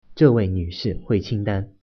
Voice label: "pay", Mandarin